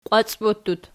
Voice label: "qiwacbottut", Archi